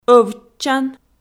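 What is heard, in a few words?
"ӧвтчан", Komi-Zyrian, female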